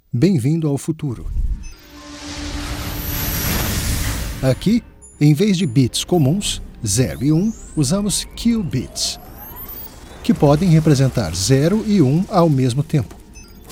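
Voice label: Documentary, Warm & Reflective Narration, Brazilian Portuguese; Male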